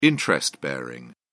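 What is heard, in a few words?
"interest-bearing", English, British English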